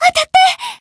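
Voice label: attack, Japanese; mayu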